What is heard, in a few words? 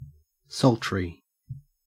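"sultry", Australian English; pronunciations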